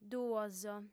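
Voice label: "duos", Sardinian, female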